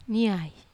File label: "nai", Wamesa